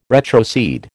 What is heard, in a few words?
"retrocede", American